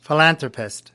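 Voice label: "philanthropist", US English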